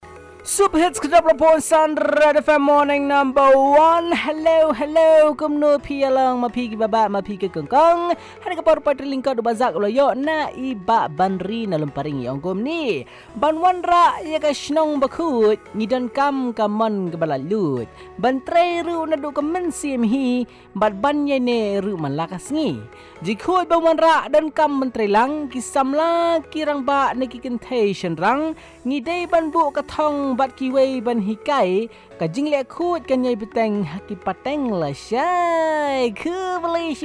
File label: short poem on clean locality